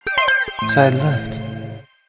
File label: audio channel side left